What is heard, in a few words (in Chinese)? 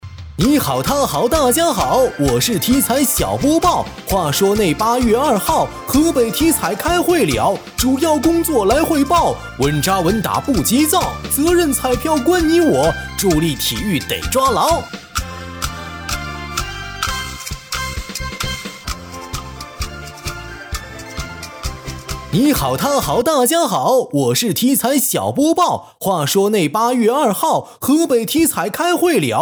男 快板; 你好他好大家好